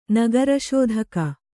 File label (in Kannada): "ನಗರಶೋಧಕ", Kannada